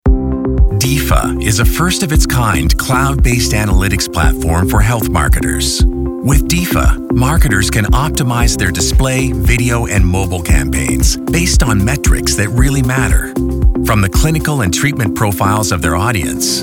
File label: us general narration